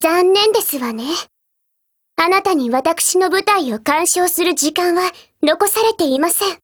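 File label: skill, Japanese; DesertEagle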